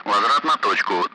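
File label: Speech, Russian; Allied Flight; DCS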